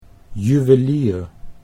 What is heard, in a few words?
"juwelier", Dutch